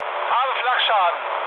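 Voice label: German damaged aa mood low, speech; War Thunder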